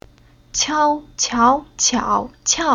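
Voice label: "Qiao", Chinese syllable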